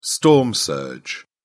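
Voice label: "storm surge", English, British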